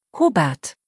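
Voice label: "Hubert", German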